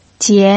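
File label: "jian" second tone, pinyin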